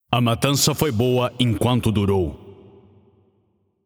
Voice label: Announcer Spree End; PTBR; Paladins

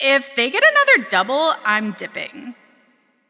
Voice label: Spree Classic Enemy Kills, Announcer; 2 Kills; Le Tigress; Paladins

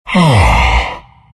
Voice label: treant happy

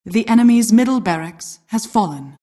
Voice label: announcer rax fall enm mid

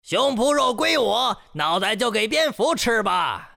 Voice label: kill, zh; Dota 2

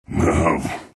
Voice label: silencer anger; Dota 2

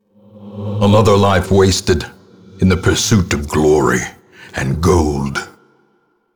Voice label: Narration death; darkestdungeon

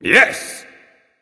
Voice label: Emote Yes; Smite; Swashbuckler Susano

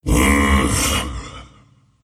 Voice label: happy; Skeleton King

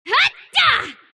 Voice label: dark willow attack big

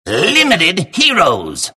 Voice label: announcer type limited heroes; Dota 2; clockwerk announcer

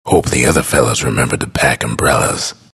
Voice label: announcer pick disruptor follow; Bastion

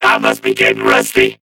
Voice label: low

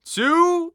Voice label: Announcer Count; 02

